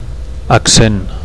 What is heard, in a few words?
"accent", Catalan